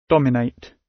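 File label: "dominate", British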